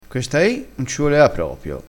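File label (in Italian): "i", crespinese dialettale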